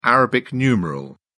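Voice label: "arabic numeral", British English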